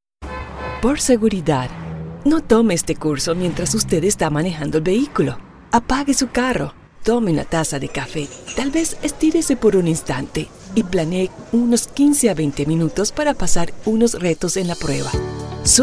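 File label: female, Spanish, e-learning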